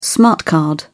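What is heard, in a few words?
"smart card", English, British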